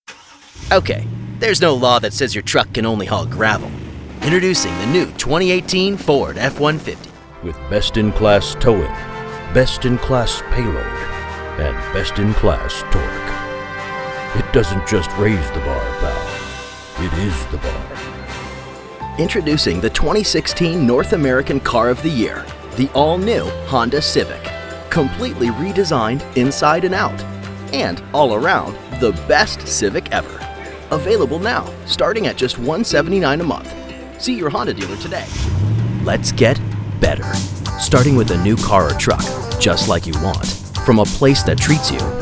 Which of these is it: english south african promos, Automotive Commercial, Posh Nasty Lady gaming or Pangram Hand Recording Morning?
Automotive Commercial